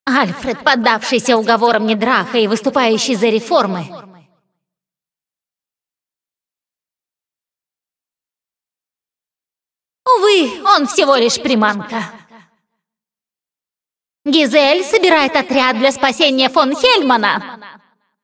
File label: cinematic voice, ru; lineage2